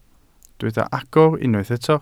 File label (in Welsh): "agor", Welsh